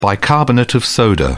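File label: "bicarbonate of soda", British English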